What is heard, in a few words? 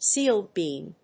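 "sealed beam", English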